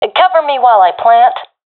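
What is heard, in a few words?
i plant bomb, professional female; vo; Counter Strike Model Pack; CS:GO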